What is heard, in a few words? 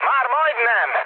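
Hungarian near waypoint mood med, speech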